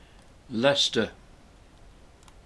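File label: "Leicester", English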